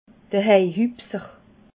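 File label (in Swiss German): "heihupser", Alsatian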